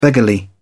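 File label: "beggarly", en, uk British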